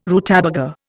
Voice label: "rutabaga", Indian